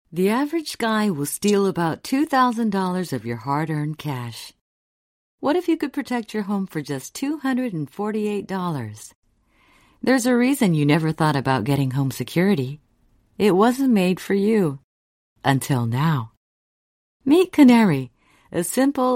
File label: relaxed radio cm, en